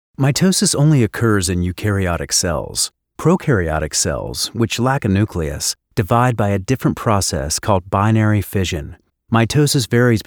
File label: Academic Elearning; Biology